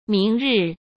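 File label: "明日", Mandarin Chinese; hsk; chinese-flashcards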